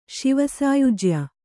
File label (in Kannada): "ಶಿವಸಾಯುಜ್ಯ", Kannada